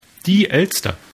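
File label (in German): "elster", German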